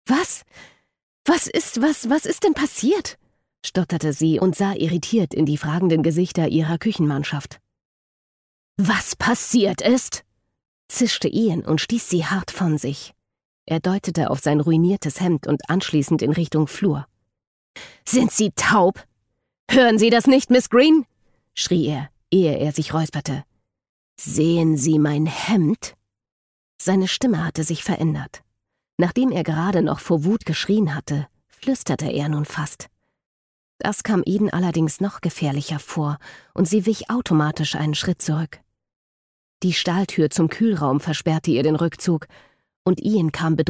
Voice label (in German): Hoerbuch